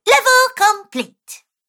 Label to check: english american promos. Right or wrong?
Wrong